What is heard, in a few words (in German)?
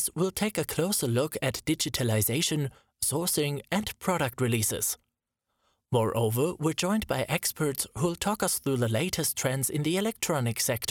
Englisch Podcast